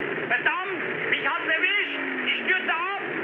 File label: aircraft destroyed, mood low, speech ge; War Thunder